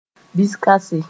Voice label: "biskãse", Mooré

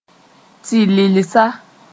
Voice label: "tɩ-lilsa", Moore

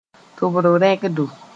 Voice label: "tʋbr rẽgdo", moore; earwax

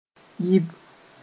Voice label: "yɩb", Mooré

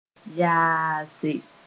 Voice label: "yaase", Moore

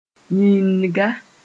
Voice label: "yiinga", Mooré

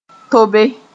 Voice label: "tobe", moore

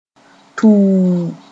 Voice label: "tuu", moore

